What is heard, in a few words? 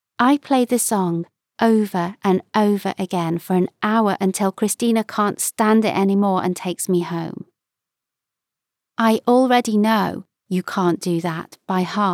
Young Adult 1st person Narrative